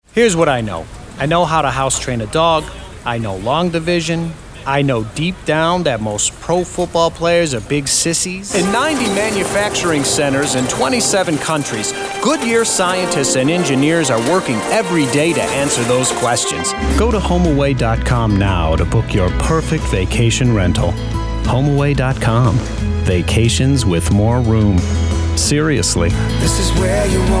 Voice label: Commercial